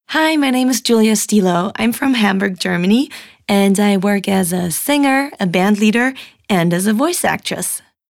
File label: Vorstellung ENG, Natural Voice, English US